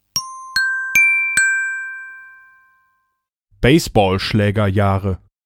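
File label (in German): "Baseballschlägerjahre", Deutsch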